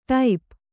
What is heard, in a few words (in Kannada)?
"ಟೋಪು", Kannada